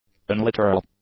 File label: "unliteral", English; adjectives